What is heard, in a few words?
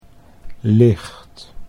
"licht", Dutch